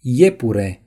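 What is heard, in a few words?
"rabbit", Romanian; gcompris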